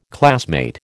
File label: "classmate", American